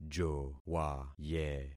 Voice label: "jowaye", concat syllables; Affixing experiment